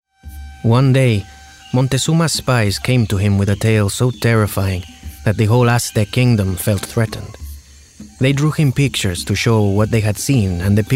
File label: hispanic spanish narrative